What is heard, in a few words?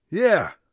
yes confirm, Warrior Voice; Warrior Voice Pack